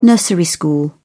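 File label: "nursery school", English, British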